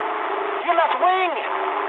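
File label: English left wing mood high; War Thunder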